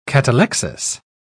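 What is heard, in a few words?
"catalexis", British English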